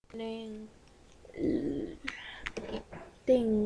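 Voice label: "ding", spoken word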